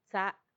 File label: "tsa", pot, macuiltianguis; cazuela